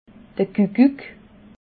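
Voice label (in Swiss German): "kuckuck", Alsatian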